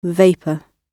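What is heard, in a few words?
"vapor", British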